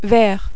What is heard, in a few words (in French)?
"vert", French